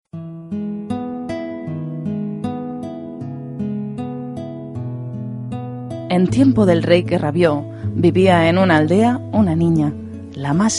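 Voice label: Spanish female, catalan castillian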